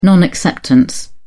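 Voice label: "non acceptance", British English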